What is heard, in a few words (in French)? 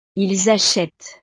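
"ils achetent", French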